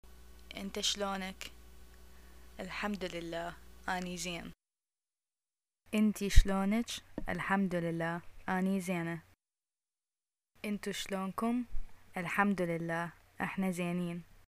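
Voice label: greeting how are you, arabic, Iraqi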